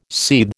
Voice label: "seethe", American